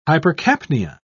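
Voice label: "hypercapnia", British English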